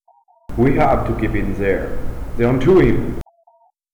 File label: phonecall